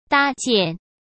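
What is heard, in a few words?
"搭建", Mandarin Chinese; chinese-flashcards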